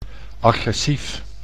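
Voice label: "agressief", Dutch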